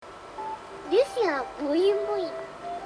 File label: boing boing